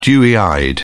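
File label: "dewy-eyed", British English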